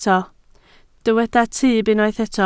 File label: "tyb", Welsh